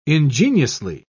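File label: "ingeniously", British English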